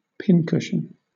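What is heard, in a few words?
"pincushion", British English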